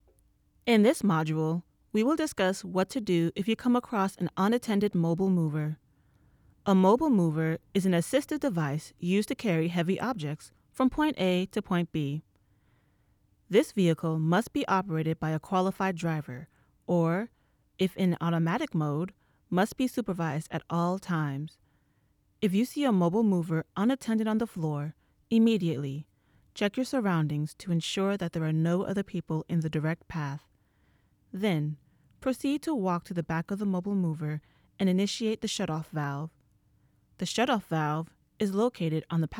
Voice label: E-learning

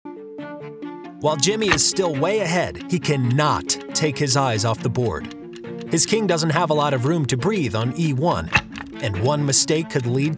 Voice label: Youtube narration

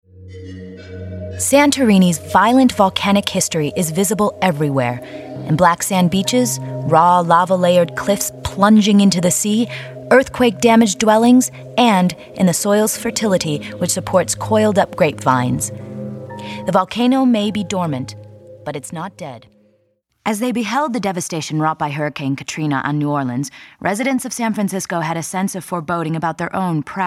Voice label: E-Learning & Industrial

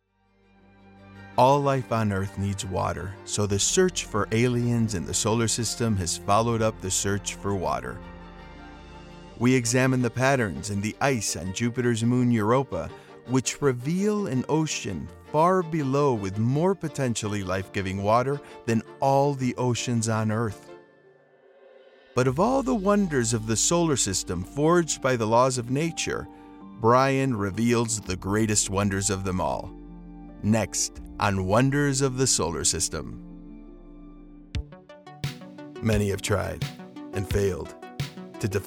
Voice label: NARRATION CORPORATE

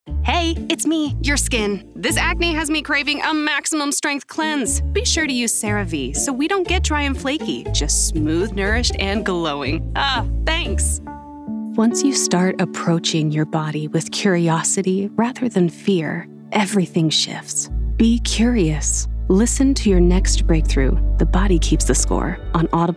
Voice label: Commercial Voice

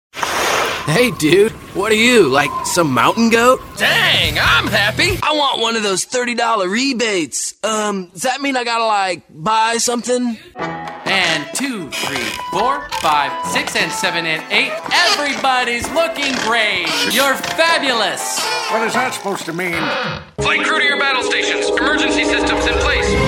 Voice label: Character

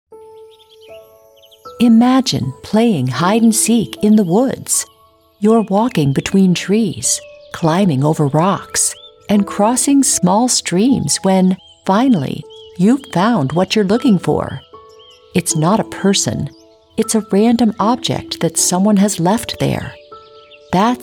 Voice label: Narration

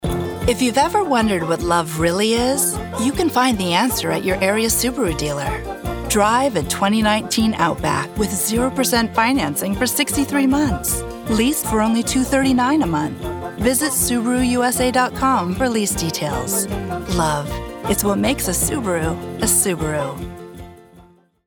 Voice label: Conversational; Subaru

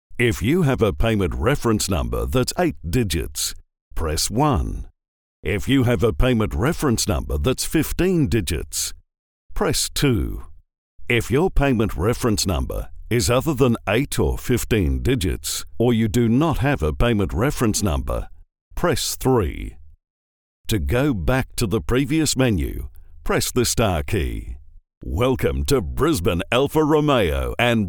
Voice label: IVR On Hold

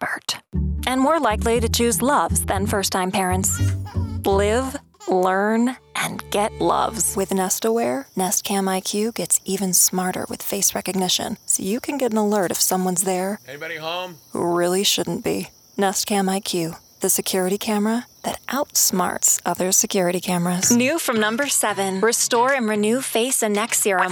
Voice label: Commercial Demo

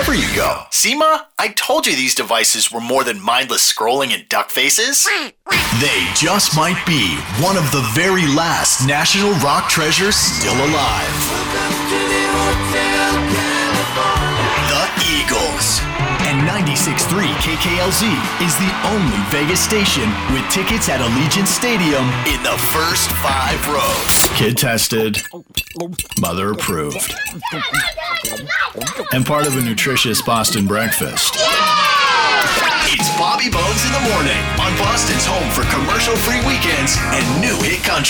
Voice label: Radio Imaging

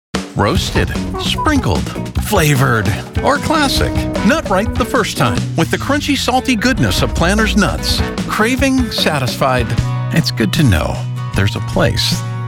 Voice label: Commercial; Commercial Demo

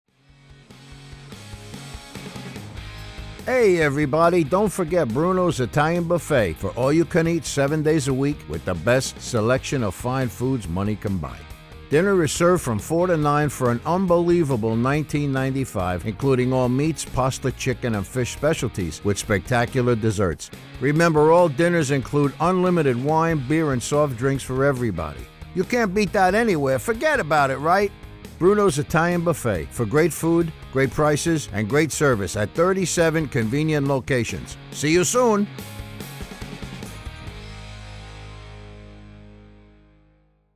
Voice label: Commercial NY Accent; Brunos

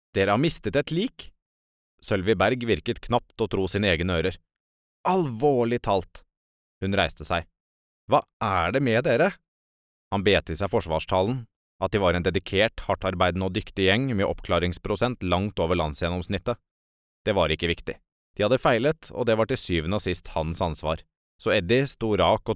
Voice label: audiobook

